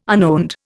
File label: "unowned", Indian